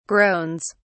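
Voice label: "groans", British English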